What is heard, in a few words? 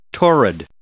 "torrid", English